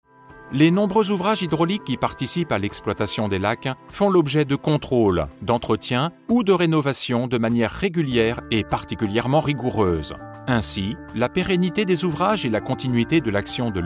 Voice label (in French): voix off